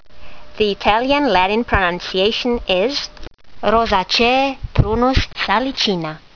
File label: latin; Prunus salicina